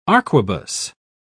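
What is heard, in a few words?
"arquebus", British English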